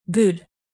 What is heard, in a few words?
"gül", Turkish native